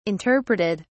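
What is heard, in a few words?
"interpreted", British English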